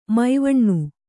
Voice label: "ಮಾವಣ್ಣು", Kannada

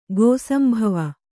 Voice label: "ಗೋಸಂಭವ", Kannada

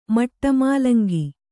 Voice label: "ಮಟಮೂಲಂಗಿ", Kannada

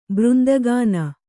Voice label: "ಬೃಂದಗಾನ", Kannada

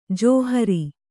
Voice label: "ಜಾಹಿರು", Kannada

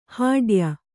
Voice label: "ಹೂಡೆಯ", Kannada

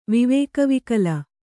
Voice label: "ವಿವೇಕವಿಕಲ", Kannada; vivēka vikala; noun; = ವಿವೇಕಶೂನ್ಯ2.

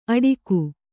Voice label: "ಅಡಿಕು", Kannada

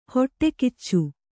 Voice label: "ಹೊಟ್ಟೆಗಿಚ್ಚು", kannada

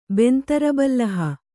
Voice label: "ಬೆಂತರಬಲ್ಲಹ", Kannada

